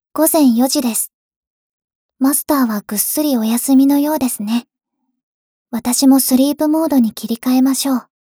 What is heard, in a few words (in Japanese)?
時報ボイス 電脳少女; ボイスコレクション